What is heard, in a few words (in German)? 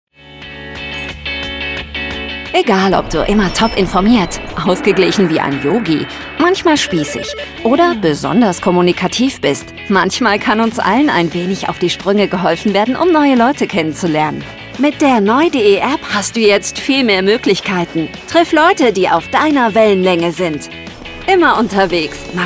werbung app jung natuerlich; neude